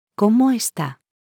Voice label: Spanish How are you; Greetings